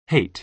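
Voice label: "hate", American English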